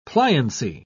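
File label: "pliancy", British English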